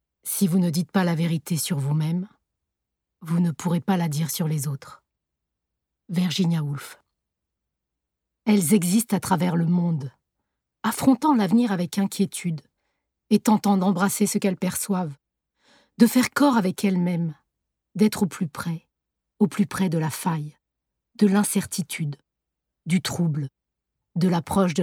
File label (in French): Extraits livre audio; Feminitude